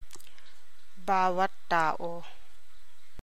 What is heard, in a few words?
TAGALOG